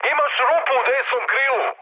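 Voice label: Serbian right wing mood high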